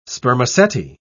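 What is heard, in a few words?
"spermaceti", English, British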